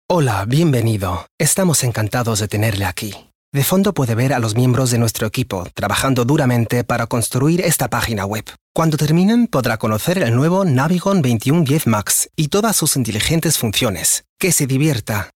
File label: navigation, spanisch